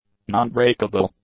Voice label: "nonbreakable", english; adjectives